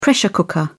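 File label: "pressure cooker", British English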